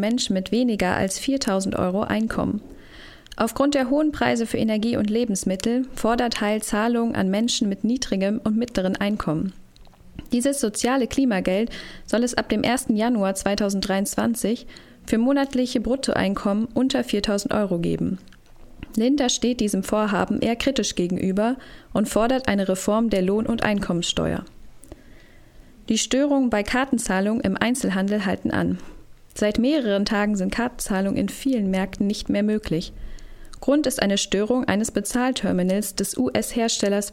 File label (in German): Radio Nachrichten